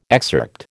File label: "excerpt", American